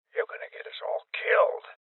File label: Voices Guardian Male Old; rebel cops 2019 gamerip